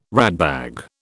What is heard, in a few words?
"ratbag", British